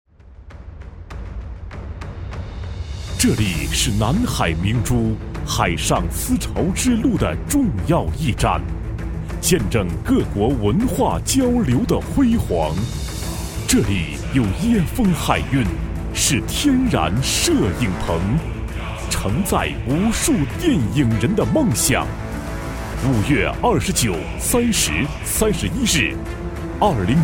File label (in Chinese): 男 广告, 国语男声配音 磁性; 海南海上丝绸电影节开幕宣传